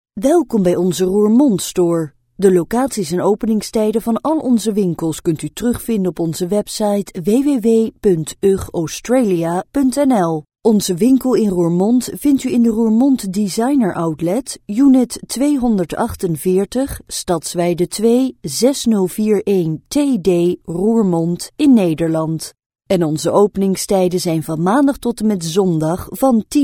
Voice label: dutch voice telephone

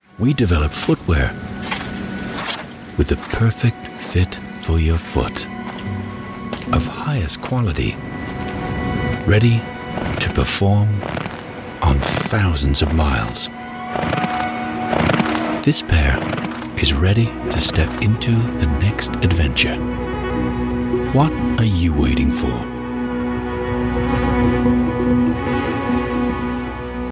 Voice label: american brand voiceover

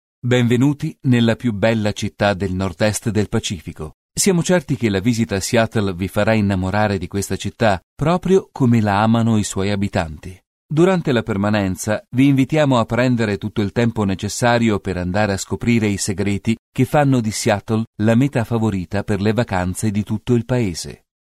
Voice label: audio guide

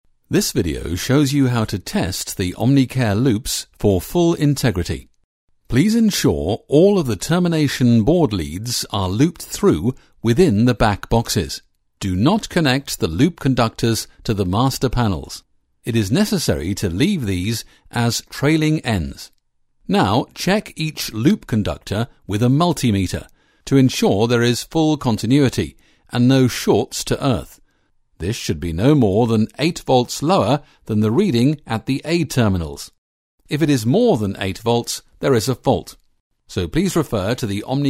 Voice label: instructional

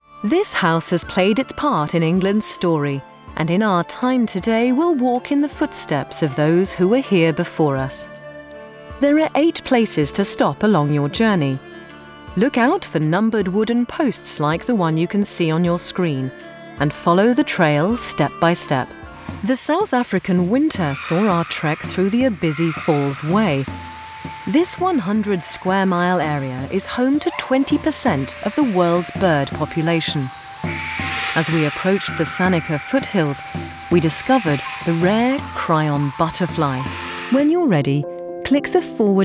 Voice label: corporate

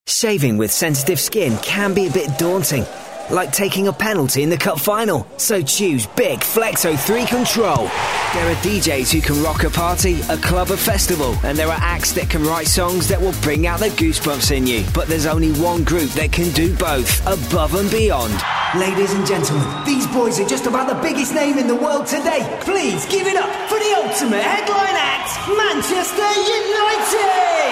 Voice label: commercials

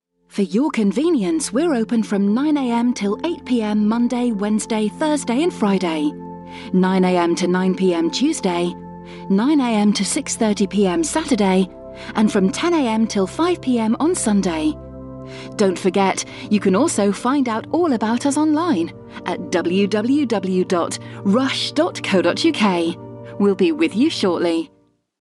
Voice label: telephone voicemail

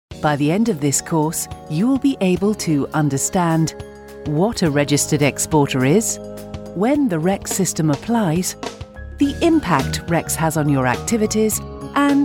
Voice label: elearning

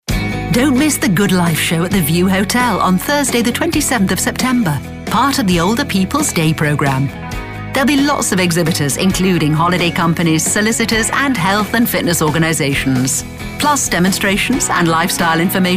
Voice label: upbeat commercial

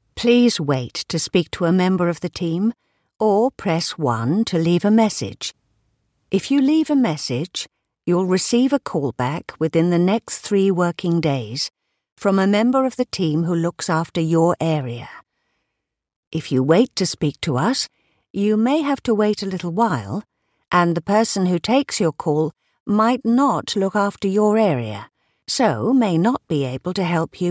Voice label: telephone on hold warm; rp

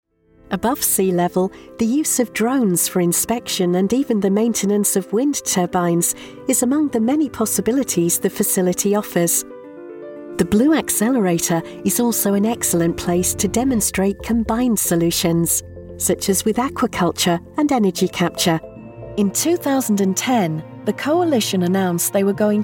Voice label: narration

